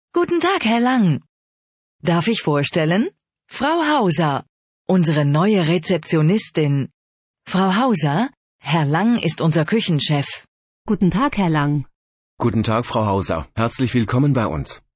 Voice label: dialog